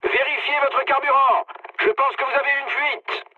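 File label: French tank damaged mood low; War Thunder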